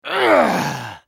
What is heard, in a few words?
ultimate effort, en US; Swain